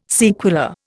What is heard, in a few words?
"sequela", Indian